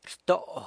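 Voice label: "rto", San Lucas Quiaviní Zapotec; sells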